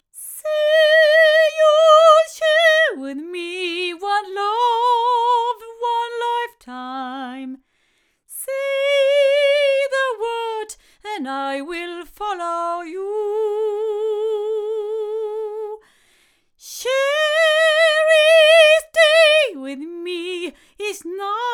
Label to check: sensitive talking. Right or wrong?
Wrong